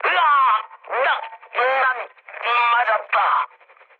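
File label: Korean damaged pilot mood med, speech; War Thunder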